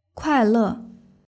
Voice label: "kuaile", Chinese